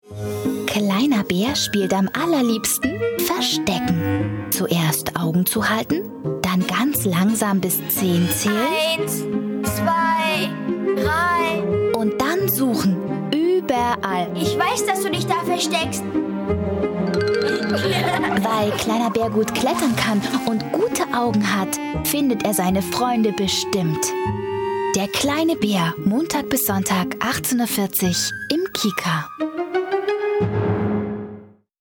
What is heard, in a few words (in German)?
Trailer; KiKa Kleiner Baer